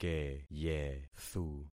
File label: "keyethu", concat syllables; Affixing experiment